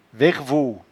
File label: "vervo", patois jura